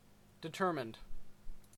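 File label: "determined", Canadian English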